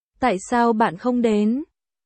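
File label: Vietnamese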